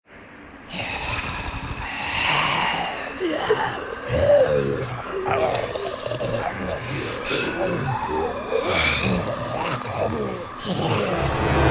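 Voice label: Zombies, Youthful Upbeat Millennial Voice Actor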